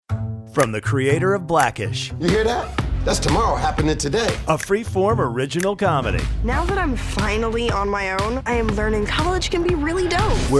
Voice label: TV Show Promo Comedy

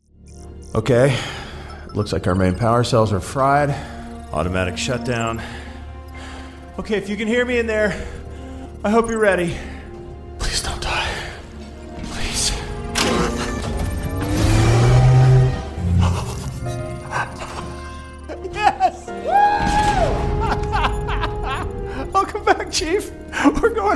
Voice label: Drama Hero with Nerves

